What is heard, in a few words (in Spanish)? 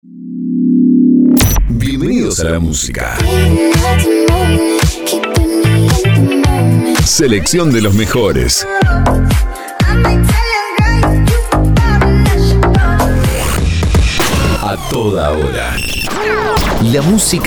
pack generico, Estilo generico Neutro, Voz masculina